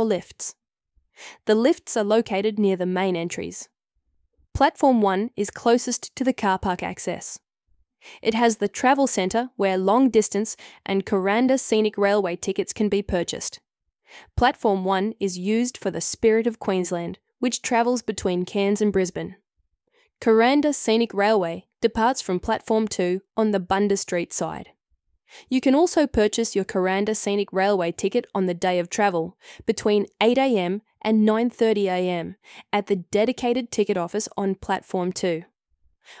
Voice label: Station map audio description